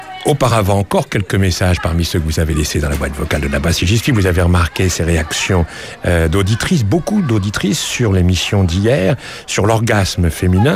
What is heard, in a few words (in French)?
Messages du repondeur; Daniel Mermet